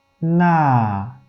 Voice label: "'na", Wu Chinese; romanization